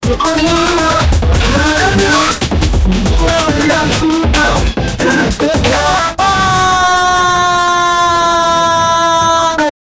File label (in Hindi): admi chenkhe, gibberish